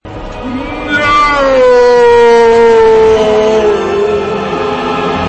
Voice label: "noooooo" meme sound effect